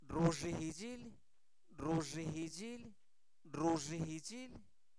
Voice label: Zapoteco Albarradas, santo domingo albarradas; INALI; verbos; ríes

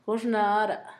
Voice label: todavía, Zapoteco, Asunción Ocotlan; Adverbios; INALI; Haspelmath

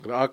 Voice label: "marido", Cucapá; Parentesco

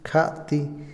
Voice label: "bajo", Otomí, hombre; INALI

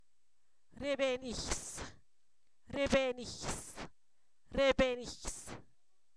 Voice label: ampolla, Zapoteco, san miguel albarradas; enfermedades

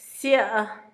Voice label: cereza, Zapoteco, Asunción Ocotlan; Comestibles; INALI; Haspelmath

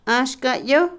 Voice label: lechuza, Otomí, mujer; Animales; INALI

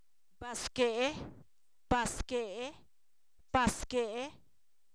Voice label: engaño, Zapoteco, san miguel albarradas; sustantivos

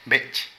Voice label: Piojo, Zapoteco de Petapa; Animales; Vocabulary

